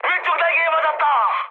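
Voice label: Korean left wing mood high, speech; War Thunder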